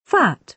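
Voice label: "fat", British English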